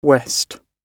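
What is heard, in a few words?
"west", British English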